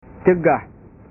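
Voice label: "cegah", Indonesian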